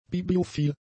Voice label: "bibliophil", German; adjectives

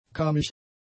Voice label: "kahmig", German; adjectives

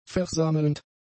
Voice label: "versammelnd", german; adjectives

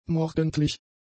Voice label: "morgendlich", German; adjectives

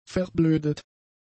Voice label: "verblödet", German; adjectives

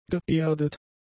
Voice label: "geerdet", German; adjectives

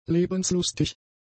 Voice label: "lebenslustig", German; adjectives

